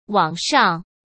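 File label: "网上", Mandarin Chinese; chinese-flashcards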